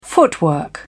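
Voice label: "footwork", en, uk British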